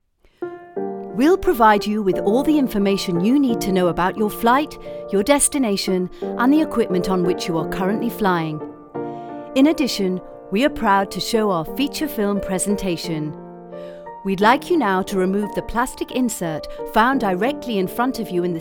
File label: e-learning samples